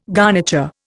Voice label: "garniture", Indian

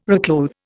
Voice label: "reclothe", Indian

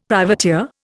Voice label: "privateer", Indian; Dictionary

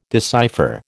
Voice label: "decipher", American

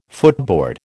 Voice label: "footboard", American

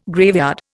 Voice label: "graveyard", Indian

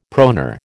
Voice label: "proneur", American

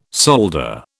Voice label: "solder", British

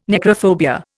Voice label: "necrophobia", Indian

